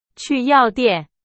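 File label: Chinese, example sentence; hsk2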